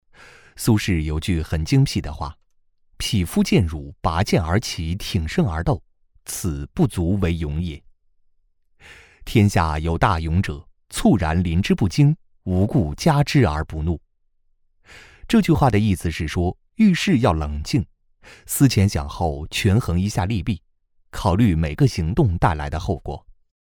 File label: locutor chino chinese voiceover